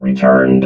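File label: "returned", robot voice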